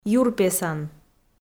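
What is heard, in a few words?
"юрпесан", Komi, female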